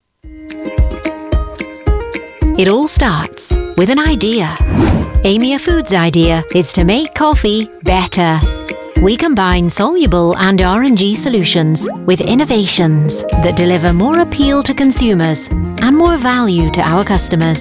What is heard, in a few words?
Corporate RP